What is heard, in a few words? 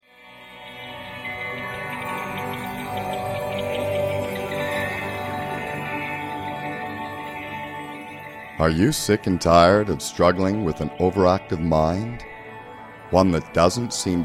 COMMERCIAL